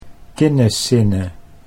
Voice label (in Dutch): "kinnesinne", Dutch